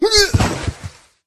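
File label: human male death, club; DUBINA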